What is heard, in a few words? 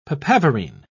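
"papaverine", British English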